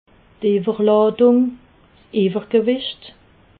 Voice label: "iwwerladung", alsace

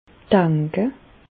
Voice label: "danke", Bas Rhin; medecine

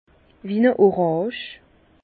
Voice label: "orange", Bas Rhin

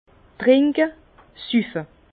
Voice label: "trinke", Bas Rhin; vin; boire

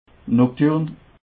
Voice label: "nocturn", alsace

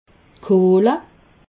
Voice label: "kohle", alsace

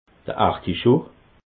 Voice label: "articho", alsace

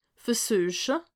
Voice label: "versueche", alsace

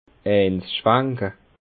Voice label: "schwanke", Bas Rhin; avaler un bon demi

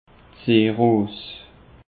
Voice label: "seeros", alsace; peche

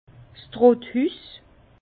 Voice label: "trotthuss", Bas Rhin; vin; vendangeoir

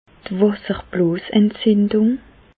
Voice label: "wasserbloosentzindung", Bas Rhin; medecine; cystite

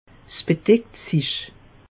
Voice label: "bedeckt sich", alsace; meteo

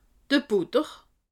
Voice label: "butter", alsace

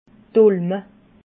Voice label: "ulme", alsace

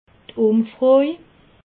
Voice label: "umfroj", alsace

